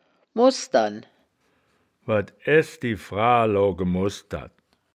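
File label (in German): "mosdern", wittlicher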